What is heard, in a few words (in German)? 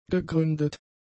"gegründet", german; adjectives